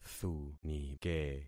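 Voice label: "thunike", concatenated syllables; Affixing experiment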